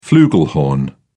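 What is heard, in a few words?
"flugelhorn", British English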